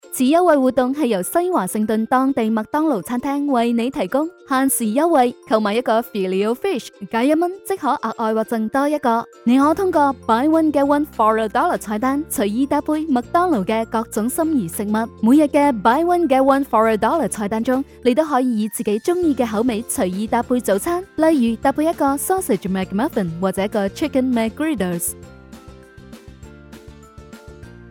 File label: Chinese Cantonese promos